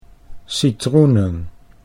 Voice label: "citroenen", Dutch

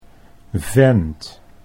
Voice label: "vent", Dutch

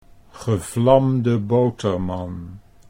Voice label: "gevlamde boterman", Dutch

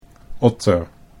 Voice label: "otter", Dutch

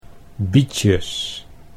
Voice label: "bietjes", Dutch

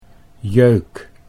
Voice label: "jeuk", Dutch

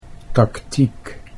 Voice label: "taktiek", Dutch

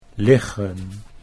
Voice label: "liggen", Dutch

